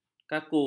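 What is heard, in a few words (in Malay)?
"kaku", Malay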